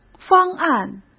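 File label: "方案", Mandarin Chinese; hsk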